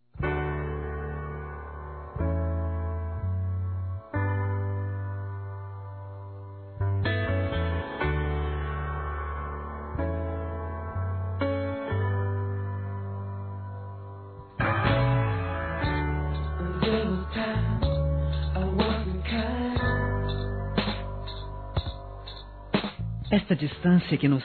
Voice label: NATAL ROMANTICO F

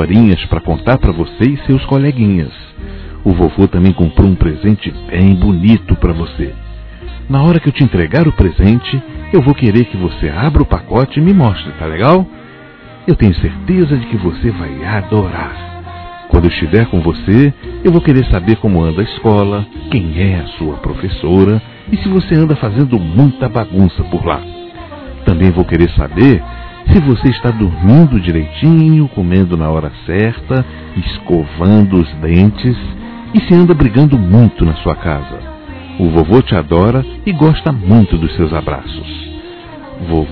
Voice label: Neto (a) Criança Masculino; Telemensagens 2017; Aniversários; Aniversário Infantil

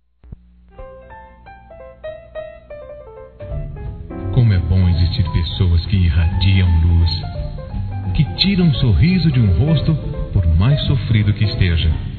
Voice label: Amigo Seu Lado Masculino, Telemensagens Amizade

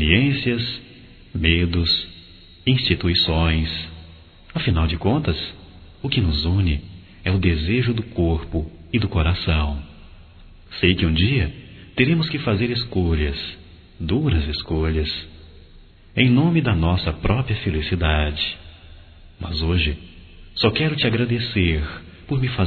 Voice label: Amor Proibido Masculino, Telemensagens; Telemensagens 2017

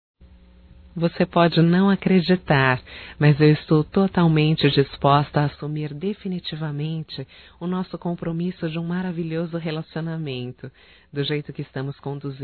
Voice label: ROMANTICA(F), Românticas, fem

